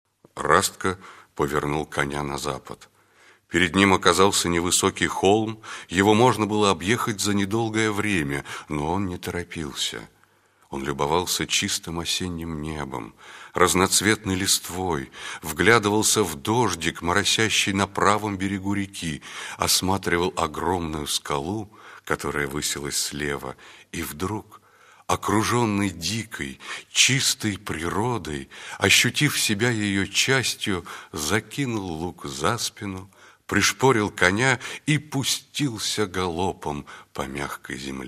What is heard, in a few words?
russian male